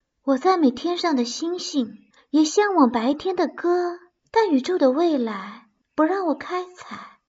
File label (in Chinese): 诗歌